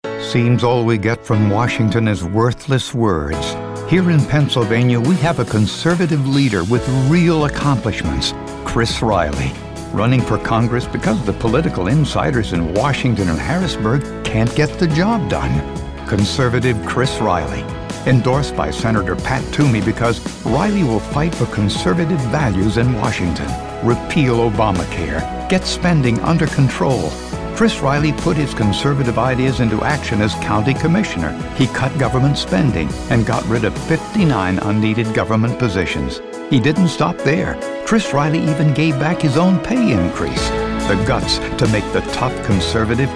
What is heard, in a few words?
radio ad